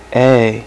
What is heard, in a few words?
"a" male, voice alphabet; Web Clip Empire 150,000